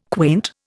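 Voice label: "quaint", Indian English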